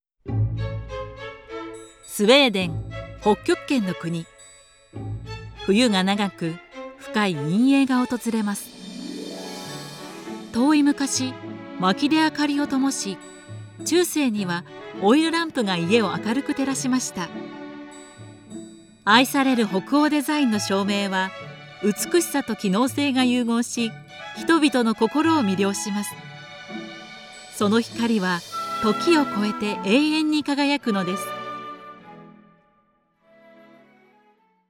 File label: documentary